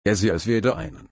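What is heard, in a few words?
"einen", German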